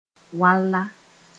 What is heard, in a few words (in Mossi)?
"walla", Mooré